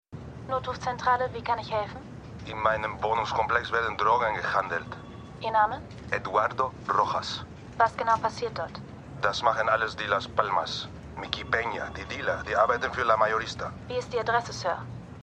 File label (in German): Notruffrau Anrufer; Bosch